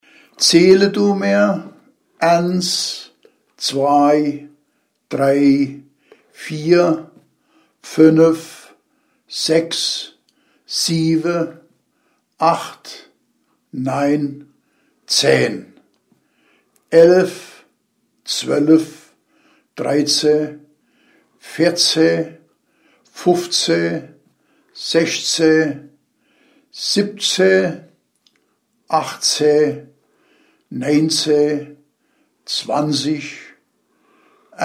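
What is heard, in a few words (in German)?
Zahlen, O-Ton Hunsrück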